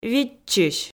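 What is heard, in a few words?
"видзчысь", Komi, female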